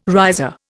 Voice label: "riser", Indian